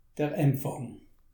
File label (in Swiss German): "empfang", alsace